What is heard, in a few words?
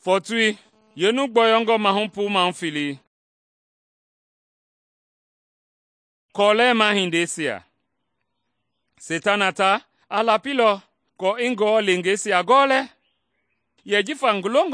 mende bsl nt drama; good news mende kpa